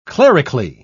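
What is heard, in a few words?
"clerically", British English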